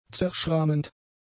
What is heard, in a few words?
"zerschrammend", German; adjectives